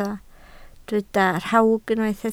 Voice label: "rhawg", Welsh